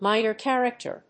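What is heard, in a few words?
"minor character", English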